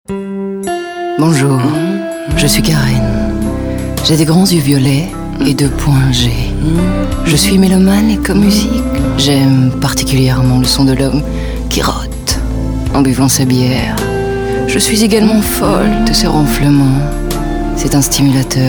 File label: French commercial; Galeries Lafayette